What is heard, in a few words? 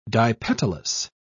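"dipetalous", British English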